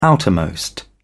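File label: "outermost", British English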